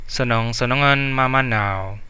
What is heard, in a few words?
bon voyage, Central Dusun